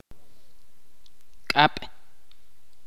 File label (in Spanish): "mano", Ch'orti'